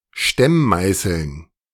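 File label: "Stemmmeißeln", German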